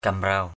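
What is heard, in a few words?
"kamraw", Cham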